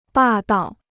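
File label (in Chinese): "霸道" Chinese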